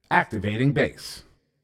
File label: Activating Base, CTF Announcer